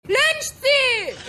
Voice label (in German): Junge Frau